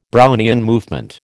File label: "Brownian movement", American